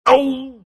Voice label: tusk pain

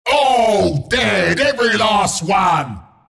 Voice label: announcer kill teamwipe; killing spree; dota; announcer dlc bristleback

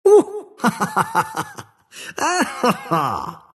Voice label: monkey laugh; dota; monkey king

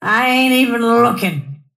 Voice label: lasthit pain; snapfire

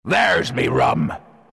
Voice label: announcer pick brewmaster, pirate; dlc workshop; capn

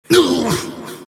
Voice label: monkey crown pain; dota; monkey king

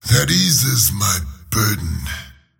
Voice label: lasthit pain; slyrak; dota

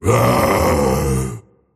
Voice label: anger; dota; tiny

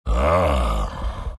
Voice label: happy; beastmaster

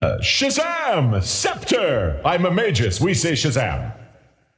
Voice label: scepter announce in game; dota

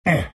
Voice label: treant pain

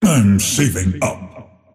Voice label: lasthit pain; dota; earthshaker; arcana

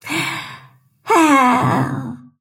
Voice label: happy; snapfire

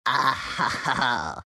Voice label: happy; shredder

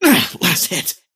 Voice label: lasthit pain; dota; kez

